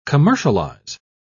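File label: "commercialise", English, British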